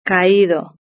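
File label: "caído" Spanish; verb-conjugator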